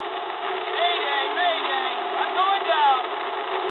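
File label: aircraft destroyed mood low, speech English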